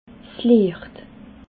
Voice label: "liecht", alsace

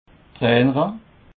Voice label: "trainere", Alsace

